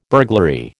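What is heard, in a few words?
"burglary", American English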